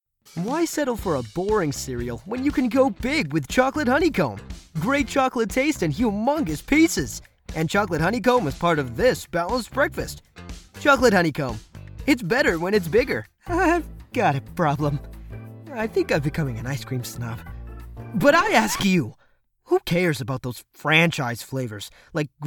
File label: Commercial